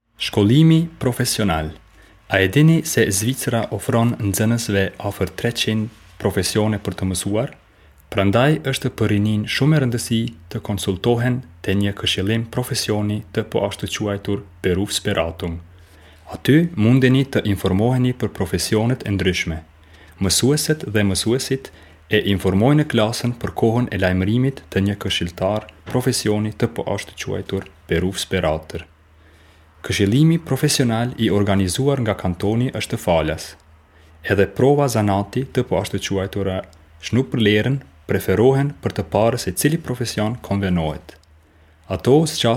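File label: Albanian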